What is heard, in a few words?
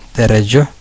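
level, Basque; gcompris-data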